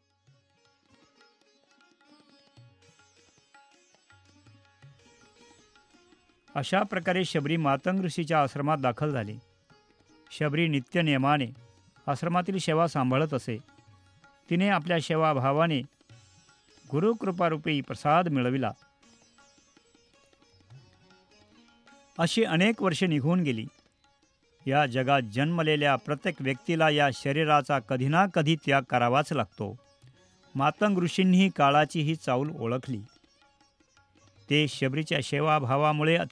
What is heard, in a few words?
Narration; Shabri Drama; Gopals Studio